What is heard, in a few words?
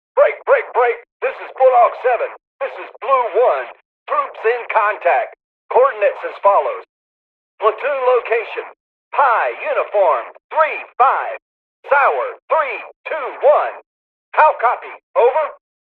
military radio voice troops in contact message; Sonniss GDC